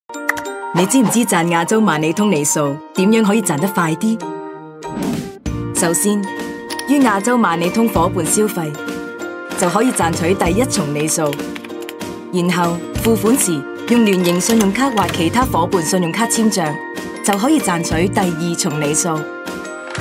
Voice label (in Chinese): 粵語女聲; 「亞洲萬里通」一簽兩賺